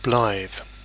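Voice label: "blithe", English, British accent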